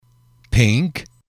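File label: "pink", English, male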